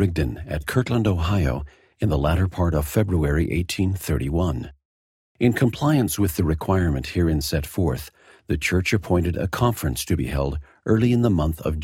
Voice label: section female voice eng; the doctrine and covenants